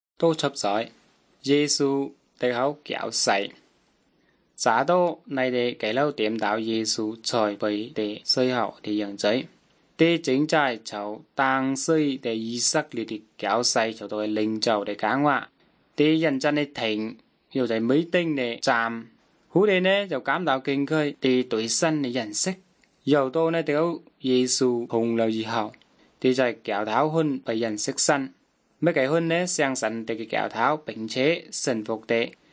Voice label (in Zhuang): good news zhuang nanning fushu